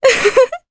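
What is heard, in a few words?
Vox Happy Japanese; Maria; kings raid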